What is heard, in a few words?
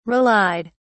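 "relied", British English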